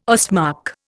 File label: "Ostmark", Indian